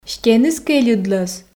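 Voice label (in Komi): стеныс кельыдлӧз, Komi, female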